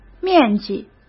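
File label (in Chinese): "面积" Mandarin; hsk; chinese-flashcards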